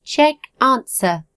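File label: check answer, Chinese; gcompris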